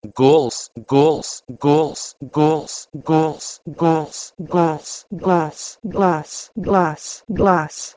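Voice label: Proto-Indo-European gols to Bosnian glas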